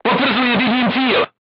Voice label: voice message target lock, crew tanks czech

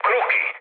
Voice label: enemy detected mood low, speech it; War Thunder

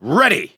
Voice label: Speech Pilots Fighter Ready, EN; World Of Warships

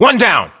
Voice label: inform killfirm infantry, US

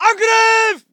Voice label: voice ger infantry; Red Orchestra 41-45

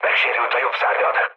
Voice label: right wing, mood low, speech hun; War Thunder

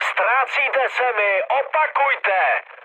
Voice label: chat, speech Czech; War Thunder

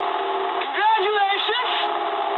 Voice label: eng player killed enemy mood low, speech eng

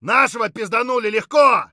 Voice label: friendly down, voice; Escape from Tarkov

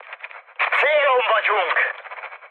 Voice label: near waypoint, mood high, speech hun; War Thunder

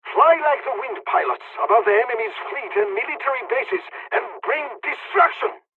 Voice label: japanese first wave, dialogs english; War Thunder

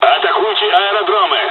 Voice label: airfields, dialogs russian; hon jpn second wave; War Thunder

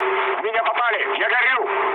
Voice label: on fire, mood low, speech ru; War Thunder

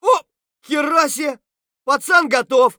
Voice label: friendly down; Escape from Tarkov; scav3

